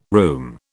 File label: "room", British